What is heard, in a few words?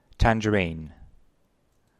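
"mandarynka", Polish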